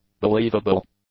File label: "believable", English; adjectives